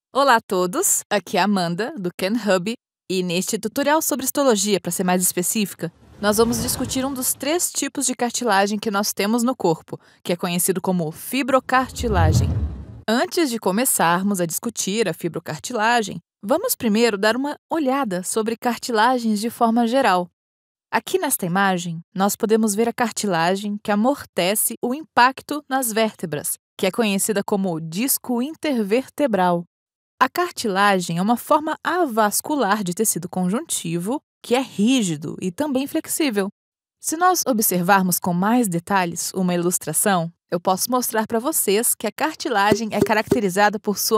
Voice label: medical anatomy elearning engaging young friendly female Brazilian; KENHUB